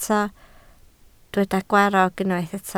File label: "gwarrog", Welsh